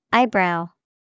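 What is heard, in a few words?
"eyebrow", English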